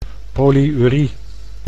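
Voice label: "polyurie", Dutch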